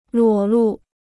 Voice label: "裸露", Chinese

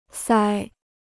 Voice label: "腮", Chinese

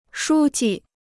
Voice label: "书记", Chinese

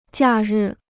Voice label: "假日", Chinese

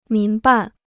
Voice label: "民办", Chinese

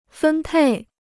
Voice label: "分配", Chinese

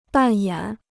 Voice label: "扮演", Chinese

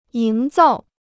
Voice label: "营造", Chinese

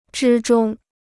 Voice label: "之中", Chinese

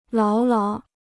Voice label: "牢牢", Chinese

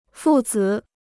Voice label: "父子", Chinese

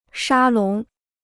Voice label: "沙龙", Chinese

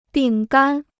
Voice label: "饼干", Chinese

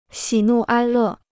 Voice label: "喜怒哀乐", Chinese

